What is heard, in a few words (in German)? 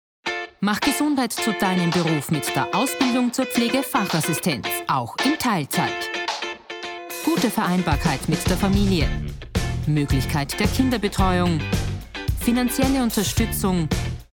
Kinospot; PFA Schule